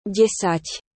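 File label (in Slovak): "desat", Slovak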